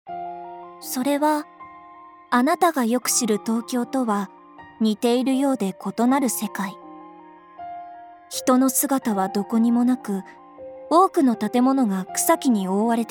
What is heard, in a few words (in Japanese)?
ナレーション